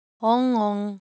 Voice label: Korean, Ideo Phones